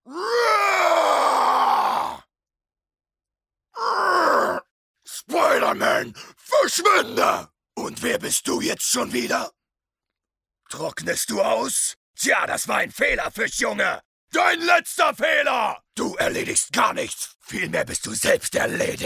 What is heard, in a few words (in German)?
serie synchron; spider man; molten man